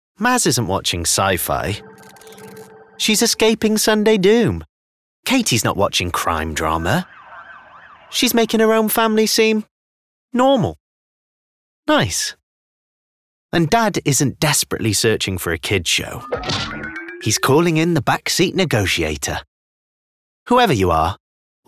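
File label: Promo, Welsh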